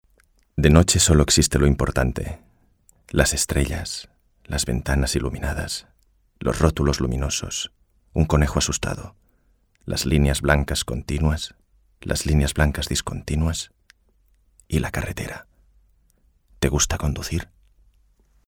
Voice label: male spanish car comercial; ideasonora

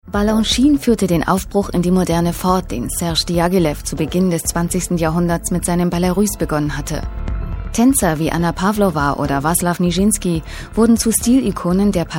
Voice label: female german commercial; ideasonora

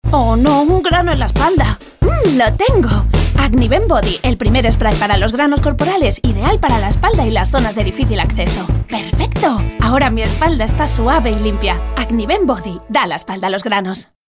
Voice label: female spanish gel comercial

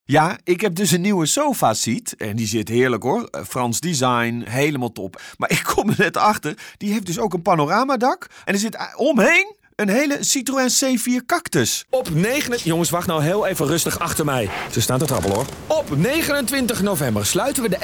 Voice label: male german comercial

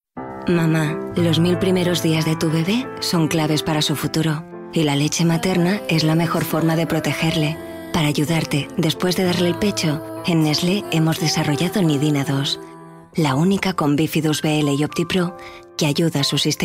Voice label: female spanish food baby comercial; ideasonora

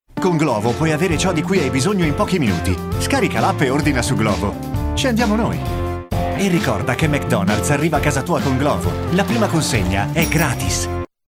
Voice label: male Italian tv comercial; ideasonora

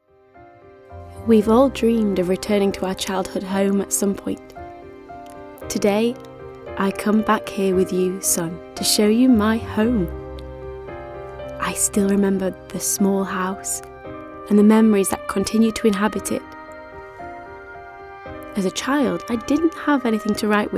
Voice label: female british english ngo comercial; ideasonora

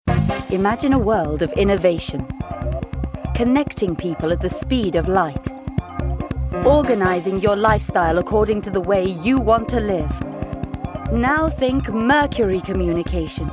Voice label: female british english outlet comercial; ideasonora

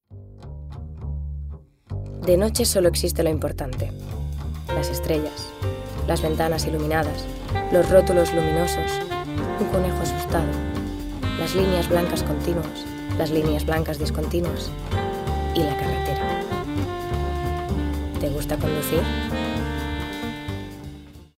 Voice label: female spanish car comercial; ideasonora

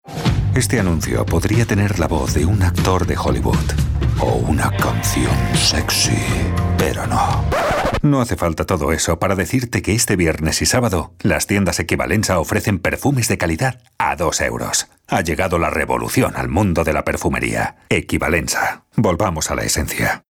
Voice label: male spanish fragrance comercial; ideasonora

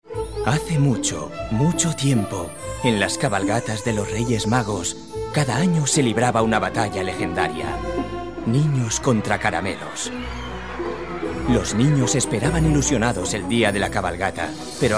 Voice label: male spanish sweet comercial; ideasonora

